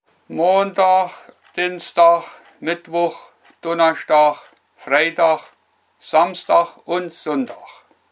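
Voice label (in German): wochentage, Hunsrück; Alterkülz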